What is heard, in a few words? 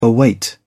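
"await", British English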